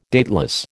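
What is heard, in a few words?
"dateless", American English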